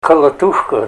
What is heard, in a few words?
club, Ninilchik Russian